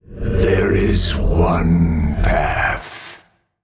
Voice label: male old god emote; LowCards